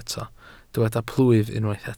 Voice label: "plwyf", Welsh

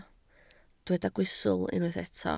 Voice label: "gwystl", Welsh

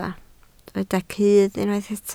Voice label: "cudd", Welsh

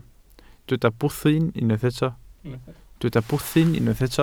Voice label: "bwthyn", Welsh

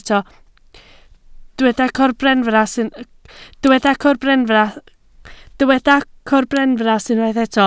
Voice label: "corbenfras", Welsh

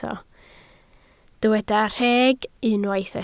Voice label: "rheg", Welsh

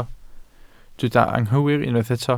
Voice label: "anghywir", Welsh

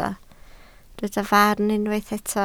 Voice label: "farn", Welsh

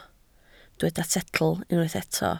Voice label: "setl", welsh

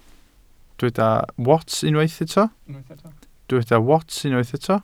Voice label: "wats", Welsh

